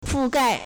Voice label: "fu4 gai4", Chinese